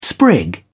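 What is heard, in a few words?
"sprig", English, British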